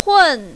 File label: "hun4", mandarin, female